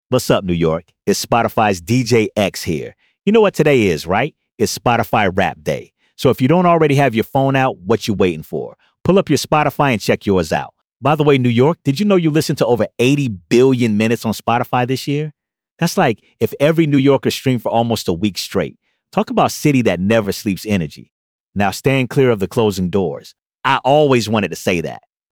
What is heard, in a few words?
Train Message